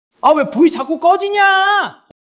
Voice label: anger

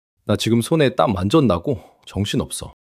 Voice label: fluster

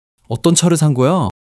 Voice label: excitement

